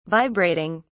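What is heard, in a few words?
"vibrating", British English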